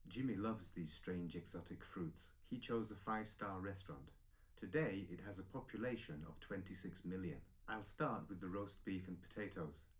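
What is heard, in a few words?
male live recording 1m; SASSEC07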